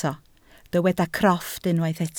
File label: "crofft", Welsh